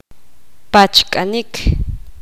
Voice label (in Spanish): poqomam voltear dar la vuelta